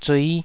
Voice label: "zui5", taiwanese